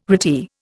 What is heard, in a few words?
"retie", Indian